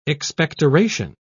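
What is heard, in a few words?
"expectoration", British English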